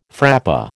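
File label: "frappé", American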